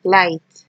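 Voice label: "light", Nigerian Pidgin, female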